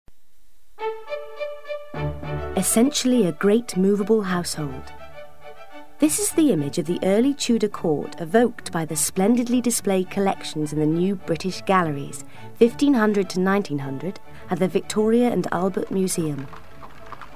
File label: Narration